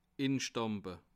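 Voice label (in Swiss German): "instampfe", Bas Rhin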